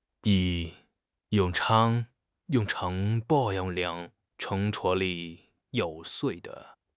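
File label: "jue2", Mandarin Chinese; 汉语口语新时代-初级下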